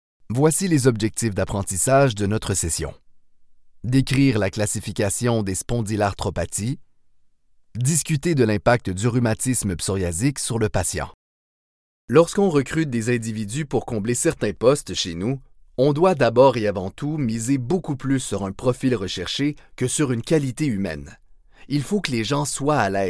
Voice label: Corporatif, voice

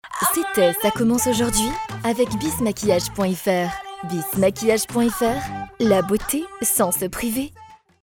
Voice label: BILLBOARD TV POS FEMME GLAMOUR MAQUILLAGE; BYS MAQUILLAGE

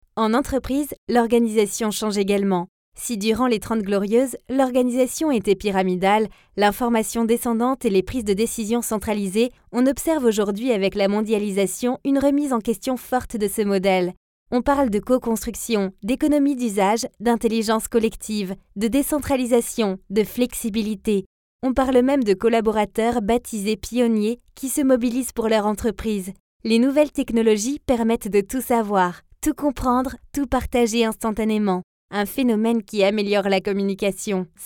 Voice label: Institutionnel voix brute

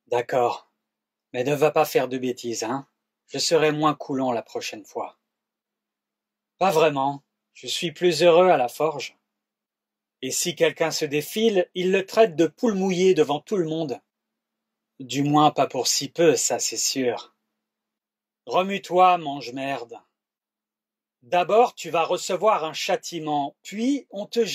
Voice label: Enregistrement brut Voix diverses, Recording Different Characters; Video Games

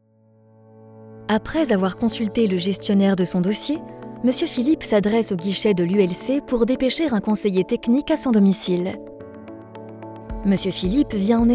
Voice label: INSTITUTIONNEL EXPLICATIF

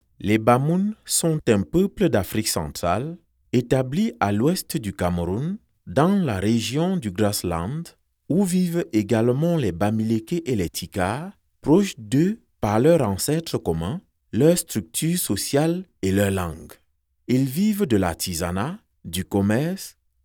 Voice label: Narration Documentaire

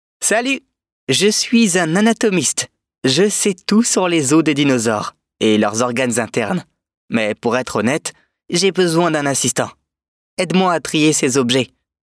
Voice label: voix enfant

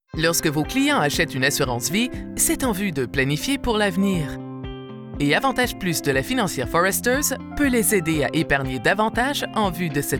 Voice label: publicite

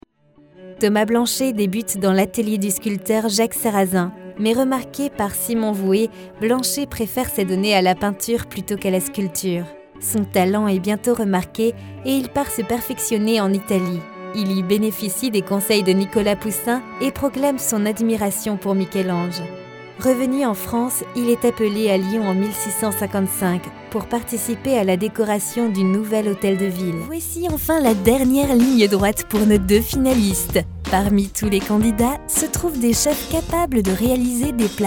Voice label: DOCUMENTAIRE REPORTAGE BILLBOARD

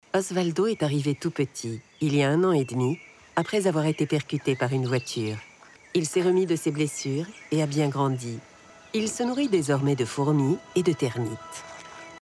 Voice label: EXTRAIT DOCUMENTAIRE; IBERA.L

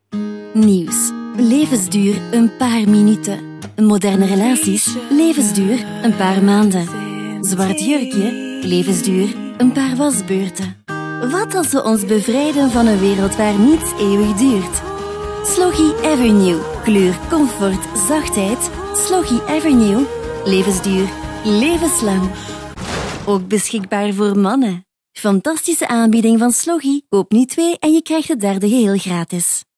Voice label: flamand; SLOGGI

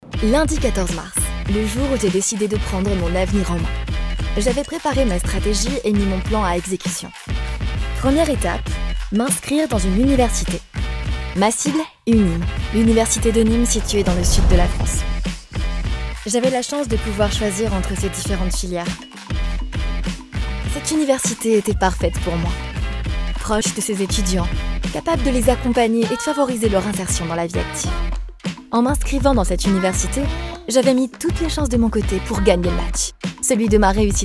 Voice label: PUB ENTRAINANT EXPLICATIF JEUNE FEMME; COLE UNIMES

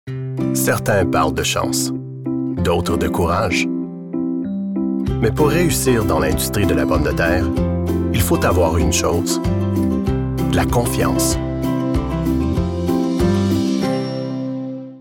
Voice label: FRANCAIS; Bayer Canada

